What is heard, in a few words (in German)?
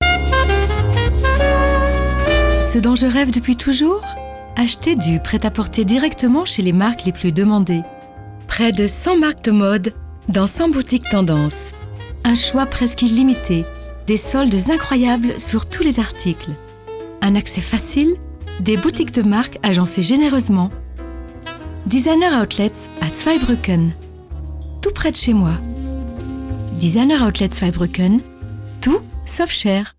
Funkwerbung